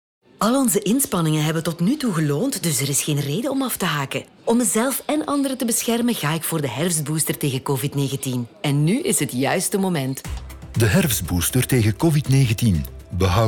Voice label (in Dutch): Radiospot herfstbooster Covid19 NL